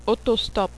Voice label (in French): "auto-stop", French